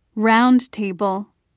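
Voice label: "roundtable", British English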